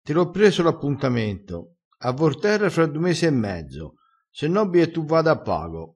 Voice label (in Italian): "a pago", Crespinese